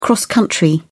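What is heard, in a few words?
"cross-country", British English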